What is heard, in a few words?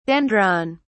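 "dendron", British English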